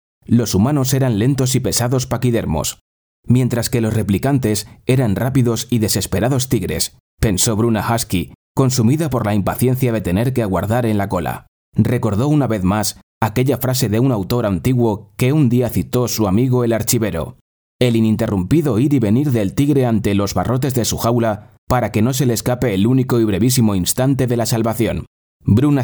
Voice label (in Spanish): audiolibro largo, banco de voces